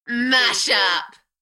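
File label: British female Mash up Enthusiastic; beanstalk audio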